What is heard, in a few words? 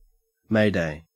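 "mayday", Australian English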